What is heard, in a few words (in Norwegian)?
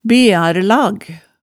"bearlag", hemsedals maalet tale